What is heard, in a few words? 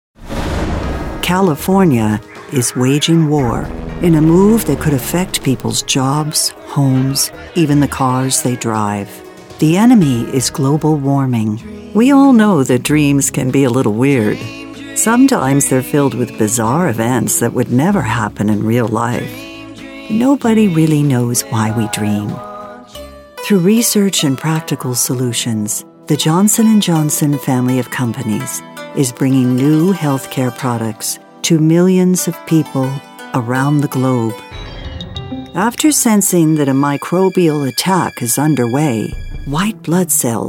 Narration